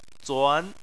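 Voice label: "zuan" second tone, chinese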